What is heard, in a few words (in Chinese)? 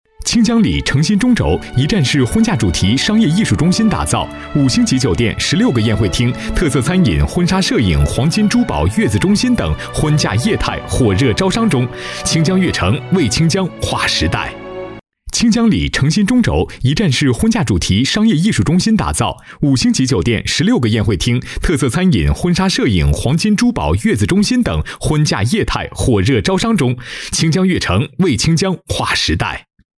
男-广告配音-轻松快速, 浑厚大气 大气浑厚; 商业艺术中心